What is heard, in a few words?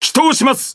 Jp Speech Pilots Torpedo Land; World Of Warships